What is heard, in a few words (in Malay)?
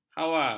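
"hawar", Malay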